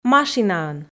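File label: "машинаӧн", Komi, female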